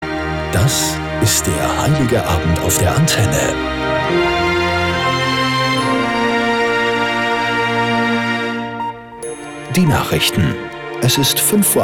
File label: news